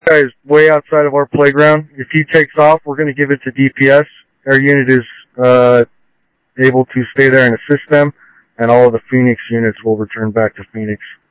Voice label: Interop Mutual Aid, scanner audio; G1 Interop MutualAid-InterS; ThompsonPeakHigh